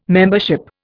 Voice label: "membership", Indian